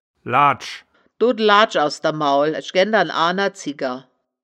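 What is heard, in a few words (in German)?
"ladsch", wittlicher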